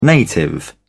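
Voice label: "native", British English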